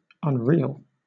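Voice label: "unreal", British English; pronunciations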